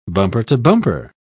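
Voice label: "bumper-to-bumper", British English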